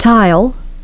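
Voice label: "tile", English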